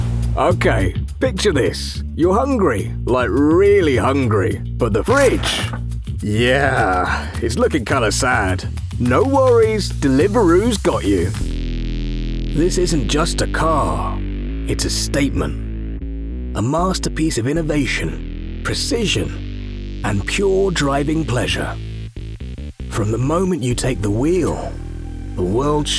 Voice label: commercial voice reel